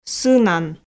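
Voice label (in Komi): "сынан", Komi, female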